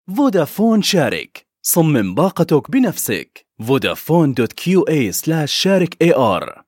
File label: arabic male continuity radio